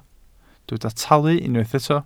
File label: "talu", Welsh